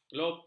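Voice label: "kelopak", Malay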